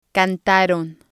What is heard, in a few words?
"cantaron", spanish